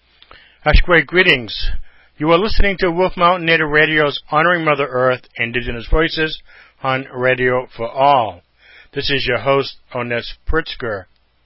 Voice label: Radio Promo